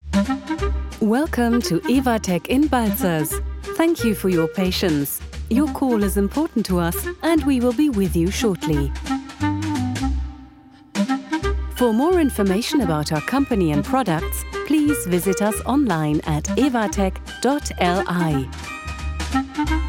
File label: EN Telefonie; Ewatec